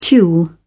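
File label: "tulle", British English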